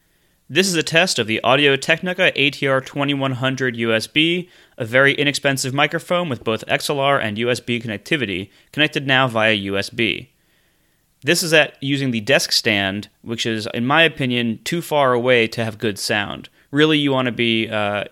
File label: desk distance; microphones; ATR-2100-USB